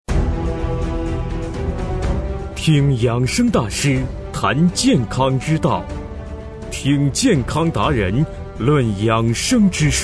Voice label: 男声 电台节目 预告片; 南丁电台

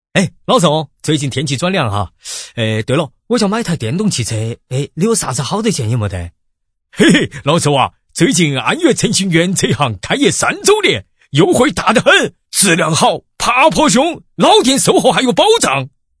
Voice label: 男声 四川 两个声音 男生角色

